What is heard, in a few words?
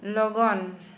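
Santali, female, fast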